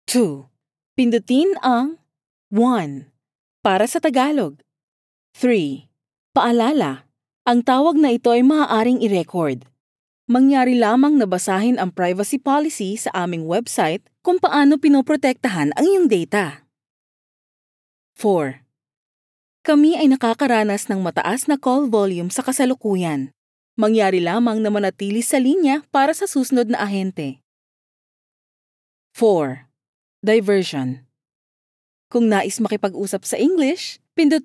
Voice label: IVR; SLVR